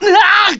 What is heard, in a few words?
Male, Death Wails; Magician and Warrior; Riviera